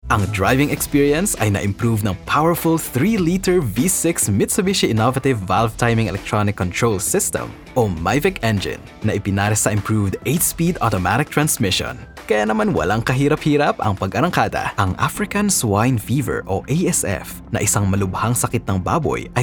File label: Tagalog Narration